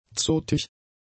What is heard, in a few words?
"zotig", German; adjectives